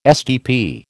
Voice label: "SDP", American